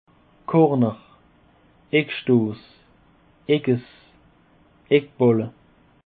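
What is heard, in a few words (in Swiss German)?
"corner", Alsatian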